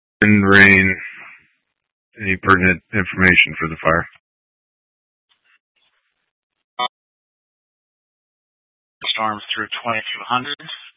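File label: Incident Tactical 1, Scanner audio recording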